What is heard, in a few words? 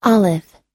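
"olive", American English; olive /ɑ́:liv; オリーブ、オリーブの実、オリーブ色、薄緑色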